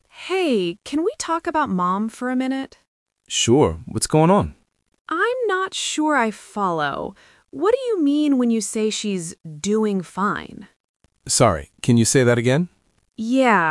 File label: Conversational patterns dialogue